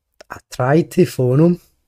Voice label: I tried to phone him, glaswegian